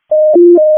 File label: WC EMS ALERT, p25